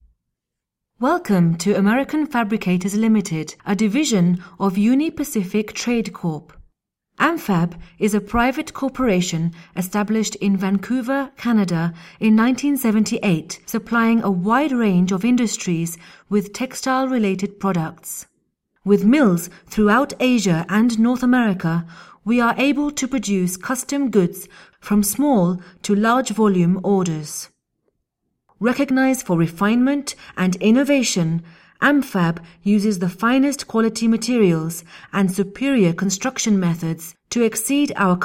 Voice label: Corporate Narration